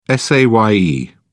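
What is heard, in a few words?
"saye", English, British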